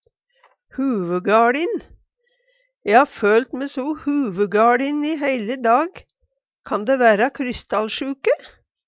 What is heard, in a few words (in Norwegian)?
huvugaLin, Numedalsmål; E ha følt me so huvugaLin i dag. Kan dæ væra krystallsjuka?